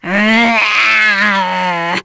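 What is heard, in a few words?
Vox Skill, voices heroes English; Nia; kingsraid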